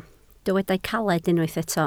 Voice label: "caled", Welsh

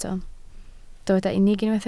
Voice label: "unig", Welsh

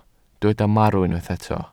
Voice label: "marw", welsh

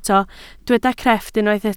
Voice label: "crefft", Welsh

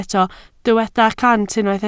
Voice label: "cant", Welsh

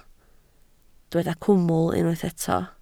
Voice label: "cwmwl", Welsh

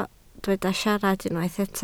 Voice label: "siarad", Welsh

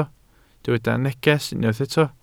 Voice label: "neges", Welsh

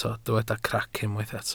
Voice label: "crac", welsh

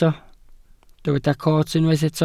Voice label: "coot", Welsh

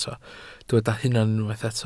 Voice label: "hunan", Welsh

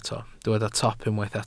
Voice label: "top", Welsh

